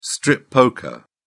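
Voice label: "strip poker", British English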